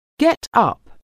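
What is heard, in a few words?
"getup", English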